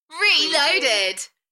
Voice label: UK female, "Reloaded", Enthusiastic; beanstalk audio